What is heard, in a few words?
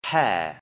"pear", English; FRUIT